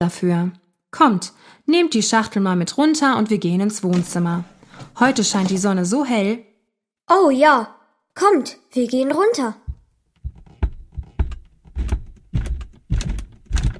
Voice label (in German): Hoerspiel